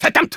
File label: negative vocalization, de; Engineer